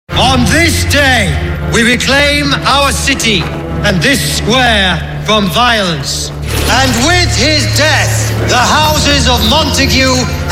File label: Character